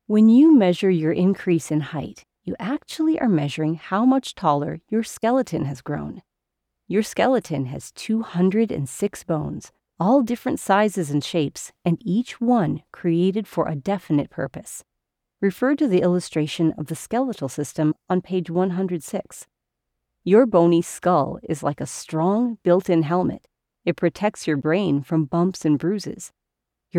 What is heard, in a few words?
Education Older Elementry Education